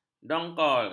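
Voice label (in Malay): "dongkol", Malay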